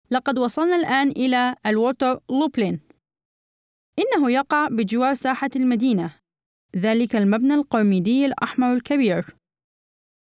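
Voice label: arabic female e-learning, voice demos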